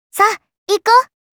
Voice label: "Ikou", Kawaii Voice, Commands